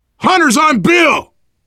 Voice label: hunter bill pounced, voice, biker; Left 4 Dead 2; left4dead2 dlc3; survivor

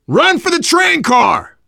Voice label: world farmhouse, voice, biker

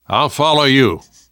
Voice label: lead on, survivor voice namvet; Left 4 Dead 2; left4dead2 dlc3

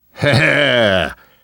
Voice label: reaction positive, survivor voice; namvet; Left 4 Dead 2; left4dead2 dlc2

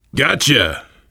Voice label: you are welcome, voice, biker

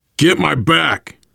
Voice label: cover me, voice, biker; Left 4 Dead 2; survivor

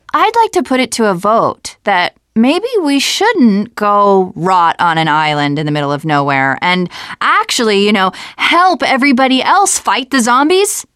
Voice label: teen girl

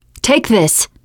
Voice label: alert give item, teen girl; player; survivor; voice

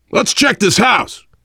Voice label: world farmhouse, voice, biker; Left 4 Dead 2; survivor

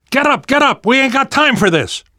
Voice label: revive friend loud, namvet, survivor voice; Left 4 Dead 2; left4dead2 dlc3

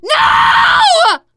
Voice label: grabbed by smoker, teen girl; player; survivor; voice

